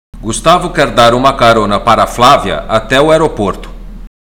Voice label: Portuguese Listening; Portuguese Test